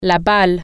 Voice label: "ball", French